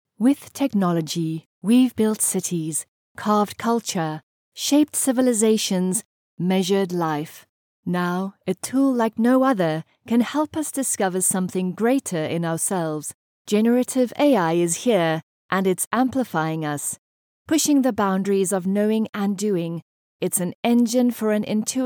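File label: Afrikaans, female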